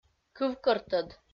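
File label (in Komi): "кывкӧртӧд", Komi, female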